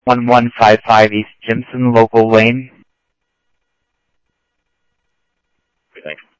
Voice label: Police, scanner audio

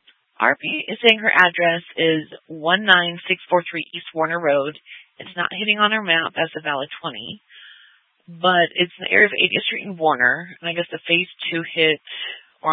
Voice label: Dispatch, scanner

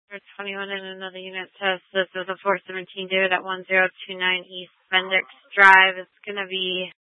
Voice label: Tempe PD, scanner; Rgnl Wireless Co-op (RWC)

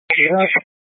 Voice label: Light Rail Emergency, scanner

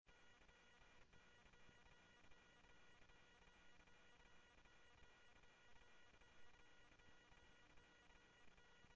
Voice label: Police, scanner; H-Scottsdale; ScottsdalePolice

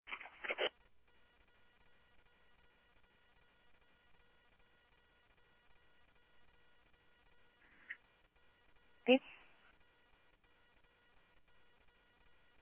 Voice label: Sheriff-WestCnty, scanner audio

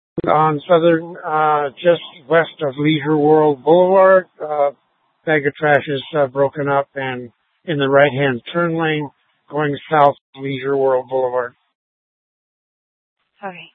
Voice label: Superstition Dispatch, Mesa PD

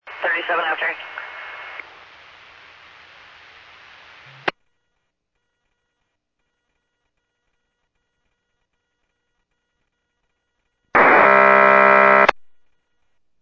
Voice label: Medevac, scanner; PHIAIREVACHelRad

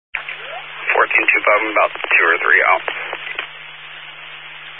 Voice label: Highway Patrol, Phoenix Metro West Area, scanner

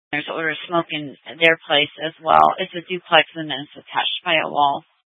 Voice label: Fire Dpts VHF 154.800 Patch, scanner

